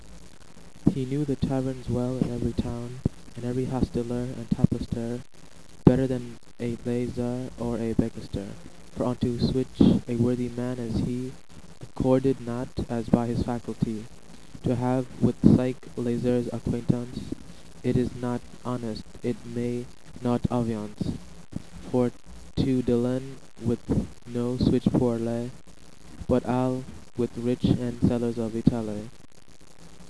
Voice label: friar voice